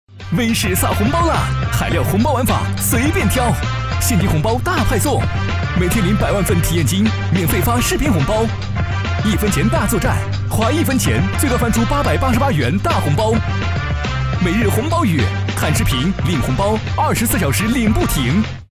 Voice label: 男 广告 活泼 轻快; 红包雨

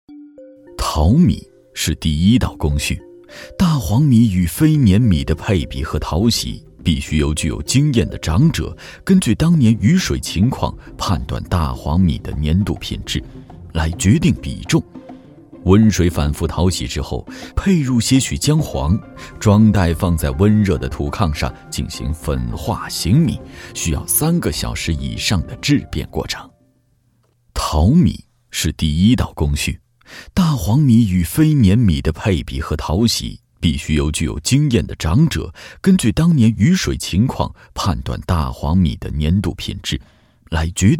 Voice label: 男 叙述 娓娓道来 沉稳 美食美味; 舌尖风; 淘米

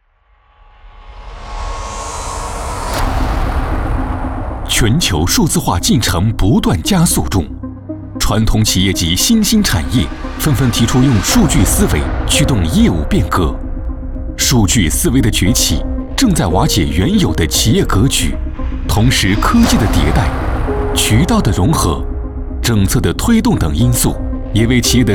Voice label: 男, 专题, 大气科技感; 数字化

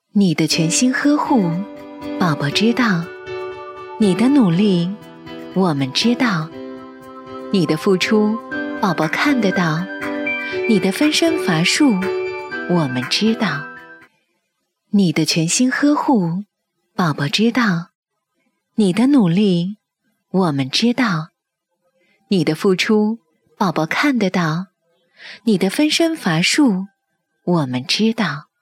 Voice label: 女 品牌广告 温柔 亲切 感性; 帮宝适

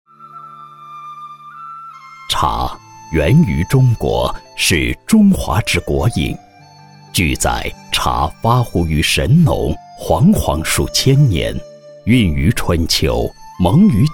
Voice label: 男 专题纪录片类 叙述舒缓

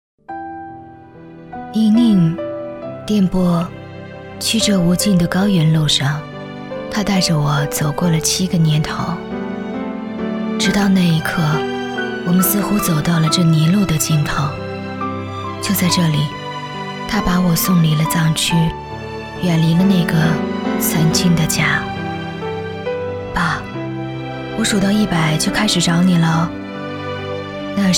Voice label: 女 旁白; 老爸微电影

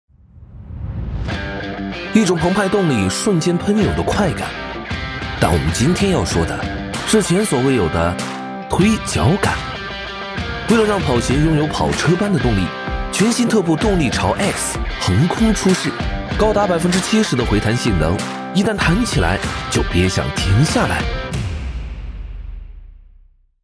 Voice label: 男 产品介绍 年轻 活跃 运动 激情; 特步

